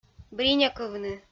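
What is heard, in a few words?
"бринякывны", Komi, female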